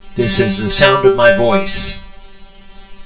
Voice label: voice Echo